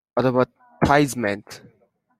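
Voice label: "advertisement", Japanese, male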